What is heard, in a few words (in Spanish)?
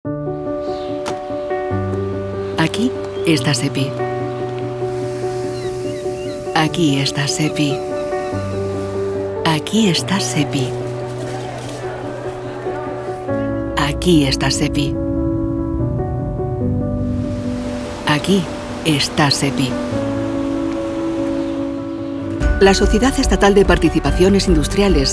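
corporativo España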